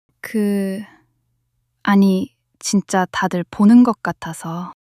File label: shy